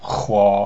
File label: "huo", pinyin sounds; Mandarin Chinese Pronunciation